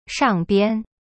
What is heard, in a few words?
"上边" shang bian, chinese; cyberchinese